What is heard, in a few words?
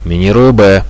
planting B, radio bot; Reallite